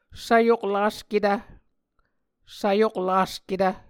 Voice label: "svyoklasketv", Muscogee